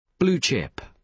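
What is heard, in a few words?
"blue chip", British English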